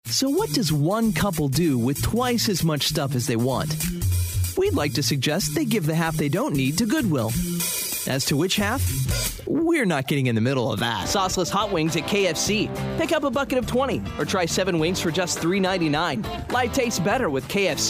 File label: Commercial Male, Guy Next Door, Friendly, Natural, Sincere; TV and Radio, Radio Imaging